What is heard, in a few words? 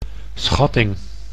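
"schatting", Dutch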